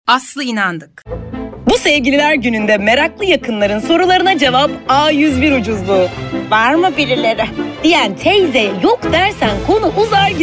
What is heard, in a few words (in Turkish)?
turkce kadin